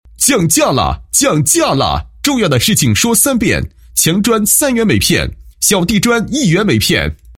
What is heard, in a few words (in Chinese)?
男 促销广告 折扣店降价促销; 茂兴折扣店降价促销